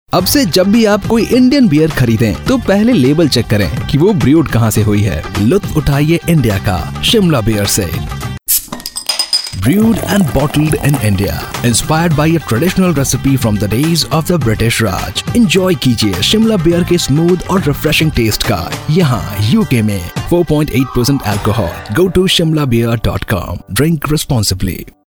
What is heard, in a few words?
male hindi